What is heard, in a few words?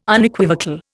"Unequivocal", Indian